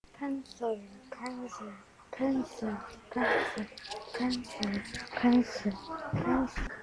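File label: "pencil", spoken word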